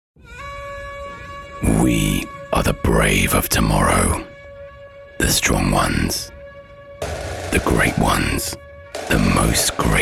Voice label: Commercial